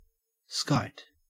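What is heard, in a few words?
"skite" English, Australian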